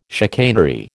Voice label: "chicanery", American

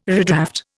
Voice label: "redraft", Indian

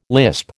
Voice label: "lisp", American

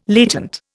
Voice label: "latent", Indian

